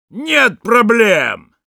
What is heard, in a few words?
positive vocalization, ru